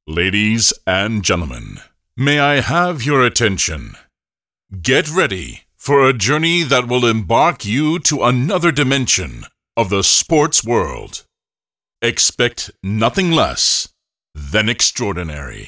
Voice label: Event announcer tennis, Live Announcer / Tennis Intro; cluj